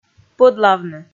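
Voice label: "пӧдлавны", Komi, female; kpv audio dict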